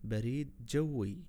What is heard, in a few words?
air mail, arabic words audio